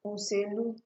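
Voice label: "Uselu", Nigerian Pidgin, female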